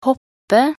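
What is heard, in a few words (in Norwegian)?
"hoppe", Norwegian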